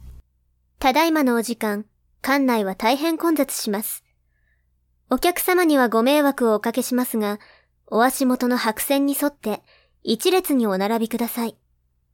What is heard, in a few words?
announce